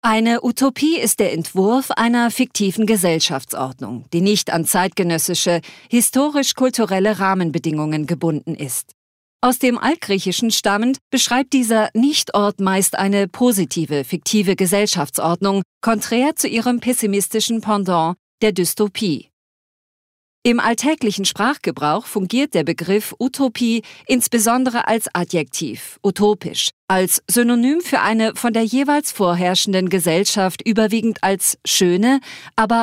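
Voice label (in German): Kommentar, Neutral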